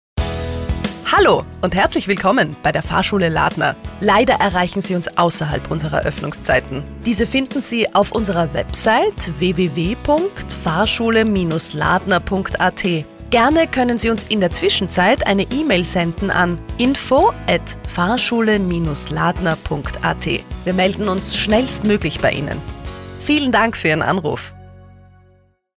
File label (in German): Nachtansage; Fahrschule Ladner; telefonansagen sonstige